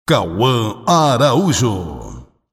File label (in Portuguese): vinheta